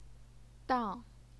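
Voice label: "dang", fourth tone, Chinese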